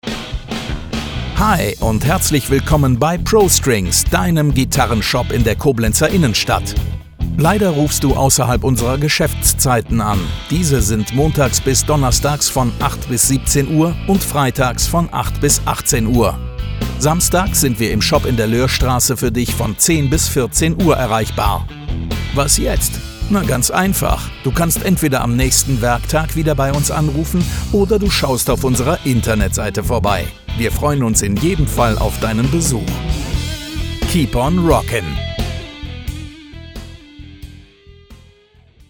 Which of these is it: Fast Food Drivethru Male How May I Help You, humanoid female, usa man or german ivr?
german ivr